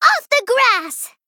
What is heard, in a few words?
Female Gnome Attack